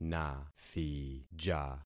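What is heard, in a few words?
"nathija", concatenated syllables; Affixing experiment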